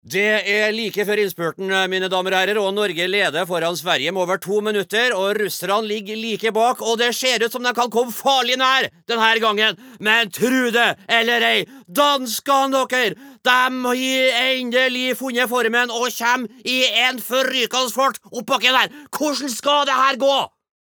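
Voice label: Norwegian dub commentator tronder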